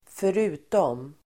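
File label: "förutom", Swedish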